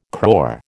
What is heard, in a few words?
"crore", American